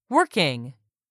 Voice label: "WORKING", English; 3000 words